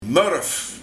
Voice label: "Morf", Modder; Gaar

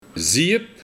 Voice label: "Ziejep", de Buiting; Zeep

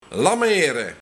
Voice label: "Lameere", Luik, Tijd verbabbelen (vooral door vrouwen - lameer)

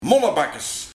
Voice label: "Monnebakkes", Masker

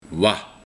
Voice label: "Wa", Wat

